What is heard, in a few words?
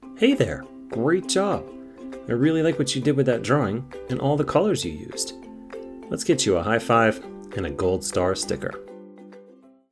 kids upbeat